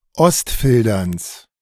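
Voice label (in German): "Ostfilderns", German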